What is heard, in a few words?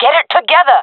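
round losing, female voice; player vo; Counter Strike Model Pack; CS:GO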